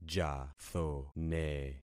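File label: "jathone", concatenated syllables; Affixing experiment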